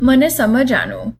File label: understand, gujarati